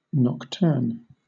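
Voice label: "nocturne", British English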